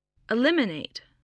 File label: "eliminate", English